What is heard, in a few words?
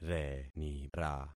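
"renira", concatenated syllables; Affixing experiment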